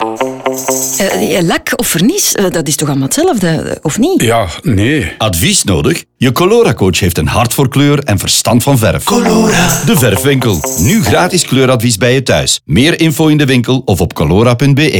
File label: Radio NL 15s Spot; Colora; December